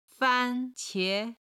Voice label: "fan1 qie2", chinese